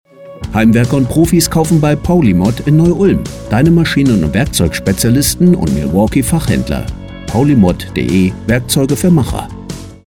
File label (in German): Funkspot; Paulitschek Maschinen- und Warenvertriebsgesellschaft mbH